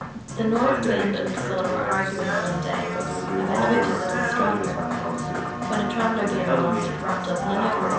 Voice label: room 4 sources 4 mics mix